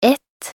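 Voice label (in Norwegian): "ett", Norwegian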